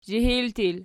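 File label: "zhihil" plural, Archi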